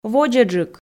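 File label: "водзӧджык", Komi, female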